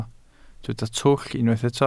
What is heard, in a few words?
"twll", Welsh